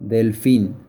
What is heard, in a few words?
Dolphin spanish